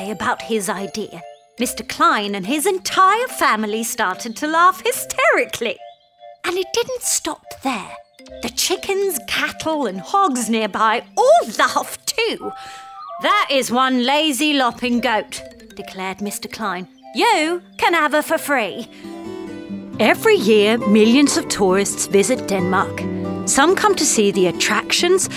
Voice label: female english with undetectable accent narration compilation; danish